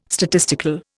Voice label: "statistical", Indian